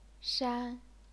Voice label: "shan1", chinese pinyin